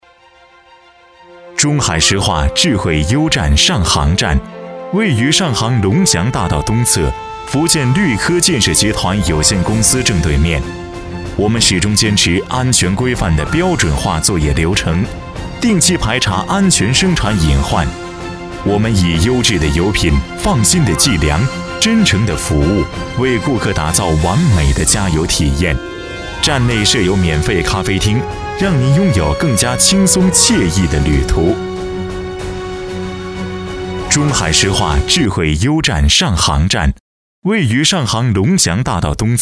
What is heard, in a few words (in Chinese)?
男 广告 魔镜